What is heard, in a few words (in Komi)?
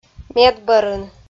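"медбӧрын", Komi-Zyrian, female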